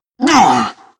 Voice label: heavy pain; zero